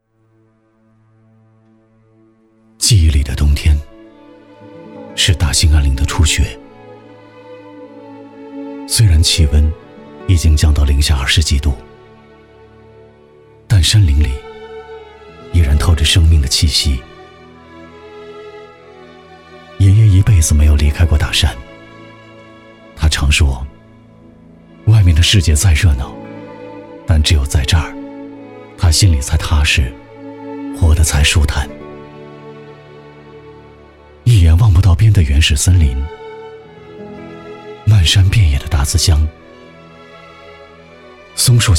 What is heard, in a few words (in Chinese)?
记忆里的冬天年轻温情